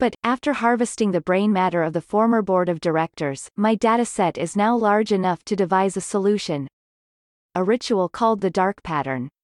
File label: Monologue; Avie